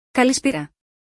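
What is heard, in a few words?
"kalispera", Greek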